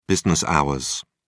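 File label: "business hours", British English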